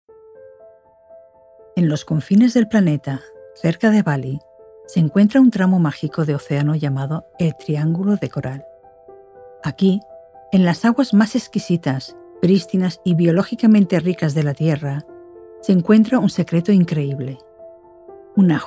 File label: spanish castilian; LUMIPEARL